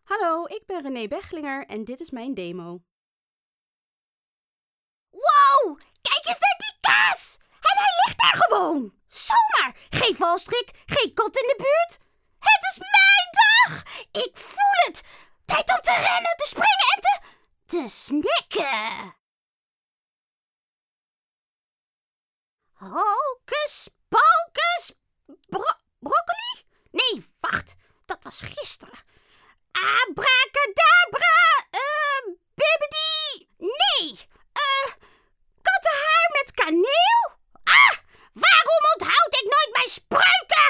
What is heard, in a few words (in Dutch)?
Stemdemo, Stemactrice; Nederlandse stemacteur; Nederlandse stemacteur met Aziatische (Filippijnse) roots